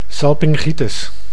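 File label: "salpingitis", Dutch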